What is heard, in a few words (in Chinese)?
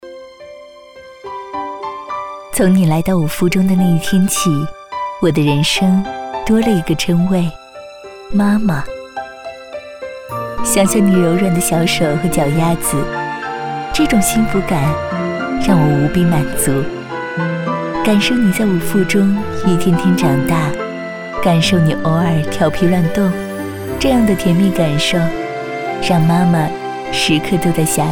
动画 角色 妈妈 温情